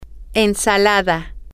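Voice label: "ensalada", Spanish, female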